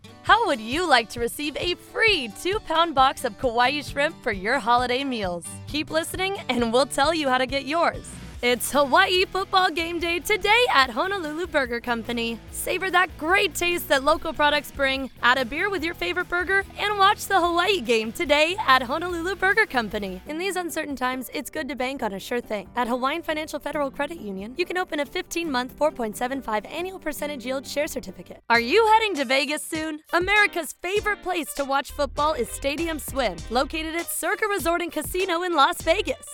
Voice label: COMMERCIAL